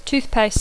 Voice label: "toothpaste", English; Dacco